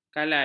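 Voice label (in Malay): "kalai", Malay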